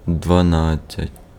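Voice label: Ukrainian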